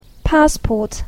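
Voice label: "passport", English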